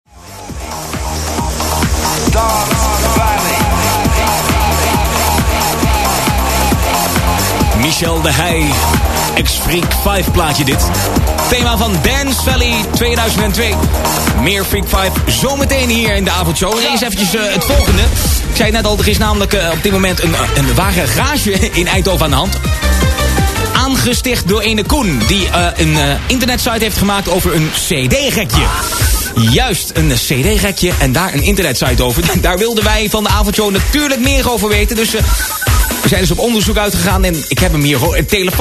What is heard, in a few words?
voicemail